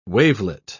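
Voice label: "wavelet", British English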